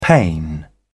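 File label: "pane", British English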